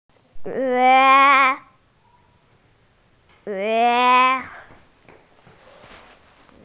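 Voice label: "yun2", Mandarin Chinese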